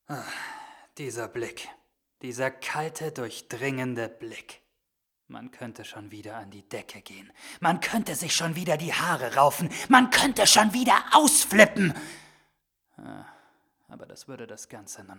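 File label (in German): Wuetend, Schauspiel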